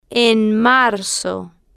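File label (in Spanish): en marzo, Spanish, female, slow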